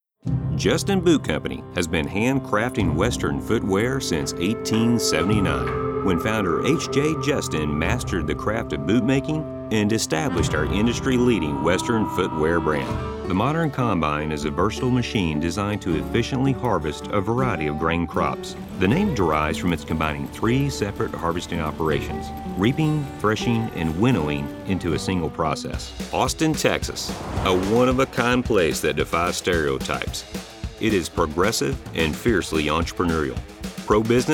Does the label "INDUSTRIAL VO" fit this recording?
Yes